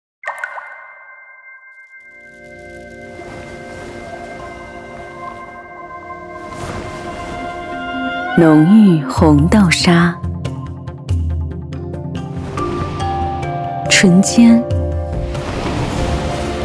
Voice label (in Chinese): 女 广告 彩妆; 花西子